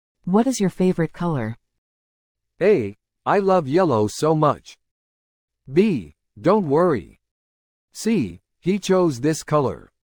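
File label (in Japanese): ノーマル, リスニング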